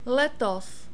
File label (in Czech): "letos", Czech